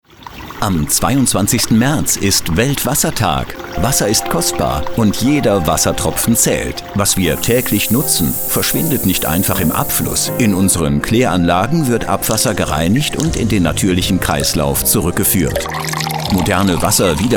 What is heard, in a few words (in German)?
SPOT; ABWASSERVERBAND; WASSERTAG; weltwassertag